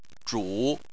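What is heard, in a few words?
"zhu", Mandarin Chinese, third tone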